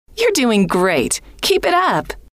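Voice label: you Re Doing Great Keep It Up, female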